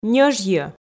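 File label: "ньӧжйӧ", Komi, female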